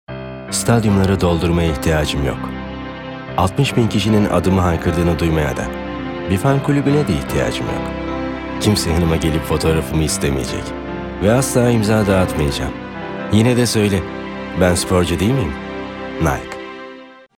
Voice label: sicak samimi

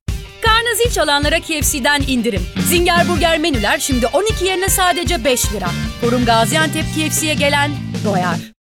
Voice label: neseli esprili, seslendirme

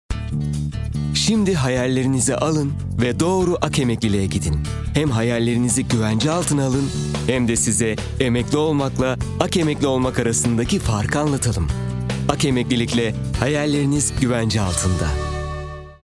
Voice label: kampanya, seslendirme

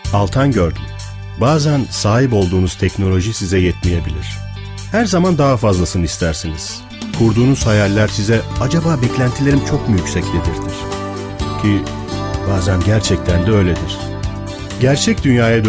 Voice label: sicak samimi, vokal tonu

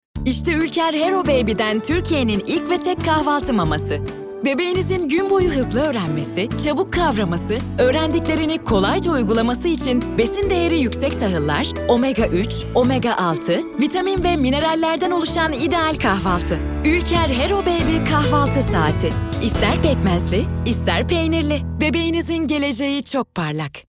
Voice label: enerjik tempolu, seslendirme

